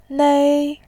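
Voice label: "nei", Mandarin, tone 1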